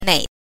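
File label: "nei", neutral tone, Mandarin Chinese pinyin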